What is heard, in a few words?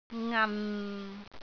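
"ngan6", Cantonese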